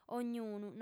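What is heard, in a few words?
"ognunu", female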